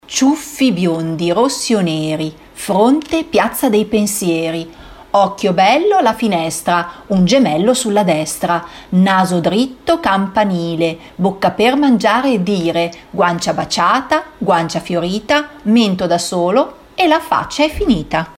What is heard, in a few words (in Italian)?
filastrocca